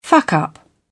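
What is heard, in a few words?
"fuck up", British English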